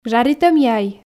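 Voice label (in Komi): "жаритӧм яй", Komi, female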